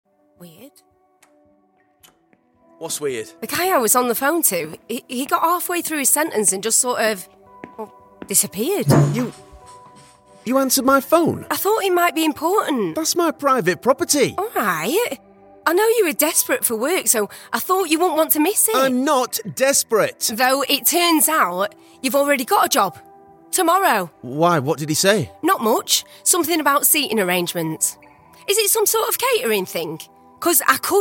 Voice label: acting, Young Northern Yorkshire Voiceover

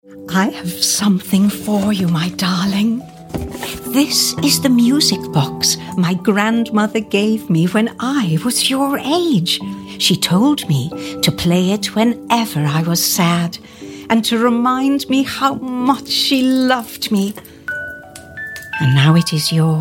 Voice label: mature Scottish videogame

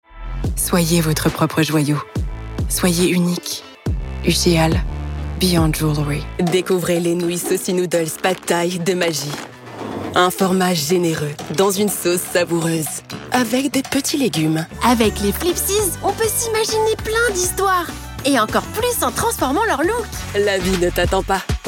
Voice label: french campaign voice commercial

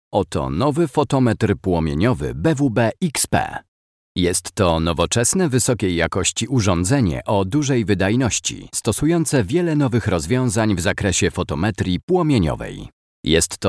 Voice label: polish voice elearning

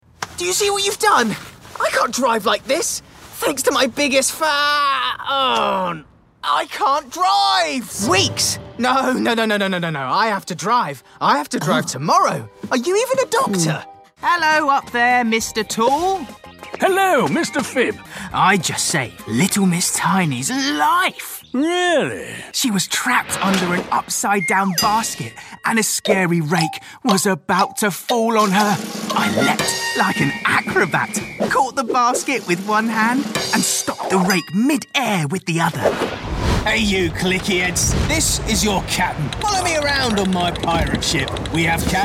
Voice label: animation characters

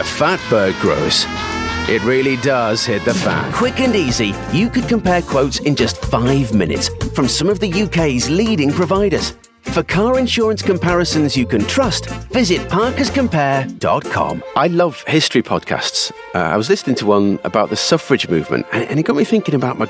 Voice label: Natural Down To Earth Voice, commercial

